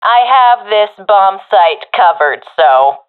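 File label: guarding bombsite, professional female; vo; Counter Strike Model Pack; CS:GO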